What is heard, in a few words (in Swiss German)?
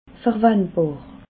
"verwandbar", alsace